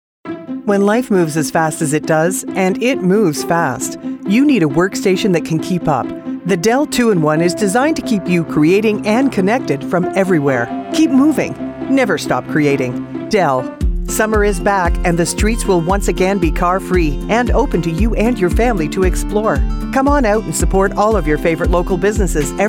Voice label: COMMERCIAL